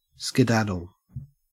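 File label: "skedaddle" English, Australian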